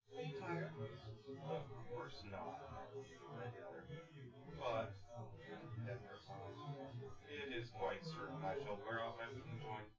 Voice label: male, synth conv, 250ms, 1m